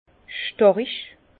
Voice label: "starig", Bas Rhin; fort; vin

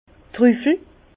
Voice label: "truffel", Bas Rhin

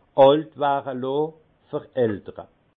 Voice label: "alt", alsace; vin; vieillissement

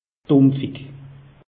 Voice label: "dumpfig", alsace; meteo

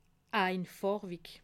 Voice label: "anfarwig", alsace

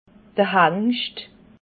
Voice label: "hangscht", alsace

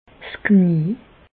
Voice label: "'s Knie", Bas Rhin; medecine; genou

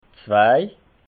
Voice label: "zwei", alsace

